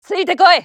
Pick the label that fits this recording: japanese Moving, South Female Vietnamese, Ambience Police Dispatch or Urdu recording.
japanese Moving